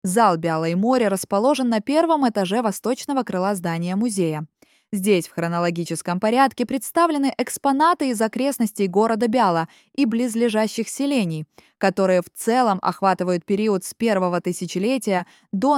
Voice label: Museum Russian; музейный аудиогид